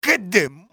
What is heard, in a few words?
what the, spanish soldier; XBOX